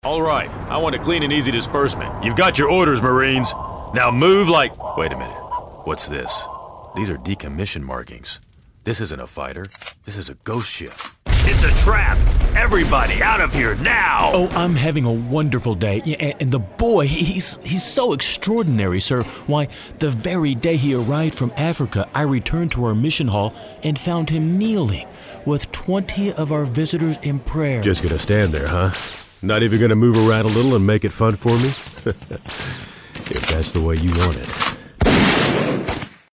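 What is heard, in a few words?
character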